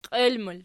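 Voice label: "qiel" plural, Archi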